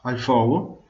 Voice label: fire, Venetian

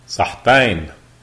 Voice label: bon appetit, lebanese, arabic

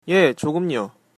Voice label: yes a little, Korean

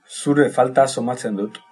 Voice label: i miss you, basque

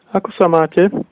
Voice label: how are you, slovak; Ako sa máte?

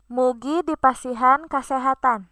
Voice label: cheers, sundanese

